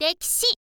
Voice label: "rekishi", info girl voice